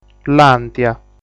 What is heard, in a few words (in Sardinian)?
"Làntia", sardu